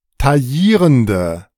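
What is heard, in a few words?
"taillierende", German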